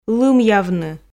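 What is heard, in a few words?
"лымъявны" Komi, female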